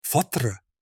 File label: mushroom in arabic